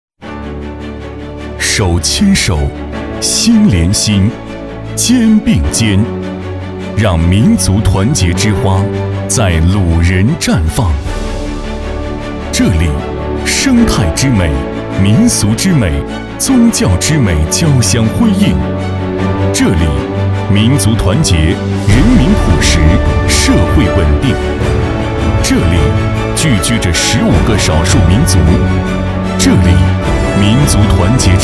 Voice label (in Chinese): 男 旁白; 手牵手